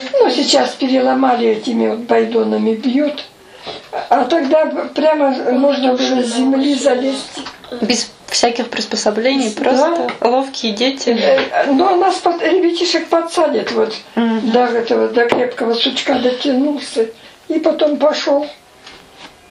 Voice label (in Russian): среднеобский говор; байдон тизер